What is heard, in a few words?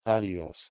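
"alios", Proto-Indo-European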